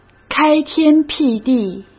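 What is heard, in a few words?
"开天辟地", Mandarin Chinese; chinese flashcards; hsk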